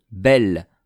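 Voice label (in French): "belle", French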